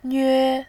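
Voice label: "nve", Mandarin, tone 1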